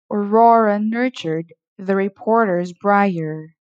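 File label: Tongue Twister r, pronunciation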